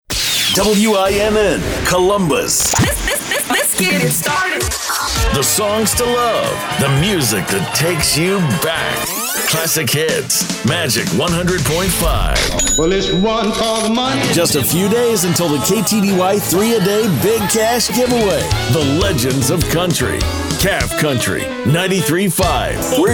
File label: radio